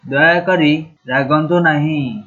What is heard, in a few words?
dont be angry, odia